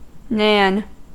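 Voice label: "nan", English, American accent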